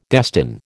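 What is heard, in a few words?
"destine", American English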